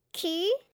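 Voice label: "Q", French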